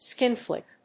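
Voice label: "skin flick", English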